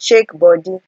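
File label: "shake body", Nigerian Pidgin, female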